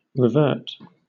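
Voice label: "revert", British English; pronunciations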